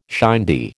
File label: "shindy", American